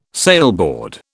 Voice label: "sailboard", British

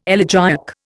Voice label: "elegiac", Indian

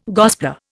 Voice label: "gospeller", Indian

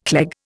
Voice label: "cleg", Indian

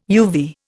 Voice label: "uvea", Indian

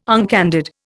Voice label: "uncandid", Indian

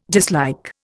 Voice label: "dislike", Indian

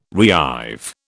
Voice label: "reive", British

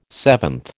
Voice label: "seventh", American

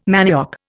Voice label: "manioc", Indian

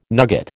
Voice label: "nugget", American

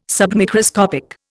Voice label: "submicroscopic", Indian

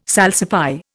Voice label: "salsify", Indian

